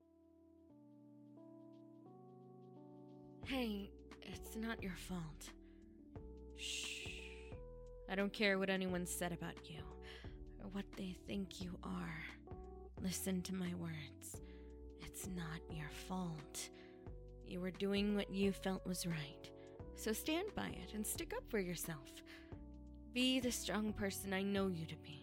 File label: Positive Message, asmr; July